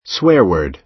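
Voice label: "swear word", American English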